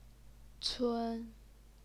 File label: "cun1", Chinese pinyin